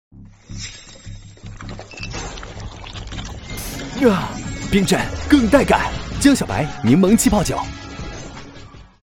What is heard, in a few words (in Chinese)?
男 广告 清新; 酒类; 个性江小白广告